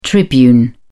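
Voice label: "tribune", British English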